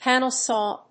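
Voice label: "panel saw", English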